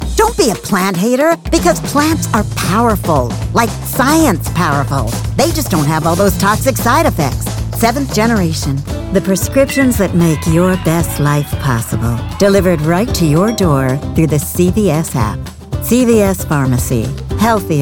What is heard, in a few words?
Commercial